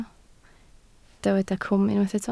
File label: "cwm", Welsh